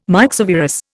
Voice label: "myxovirus", Indian